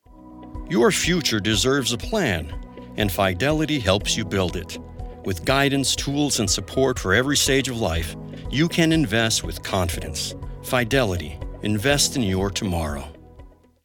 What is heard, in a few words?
online Ad trusted confident professional male voiceover conversational; Fidelity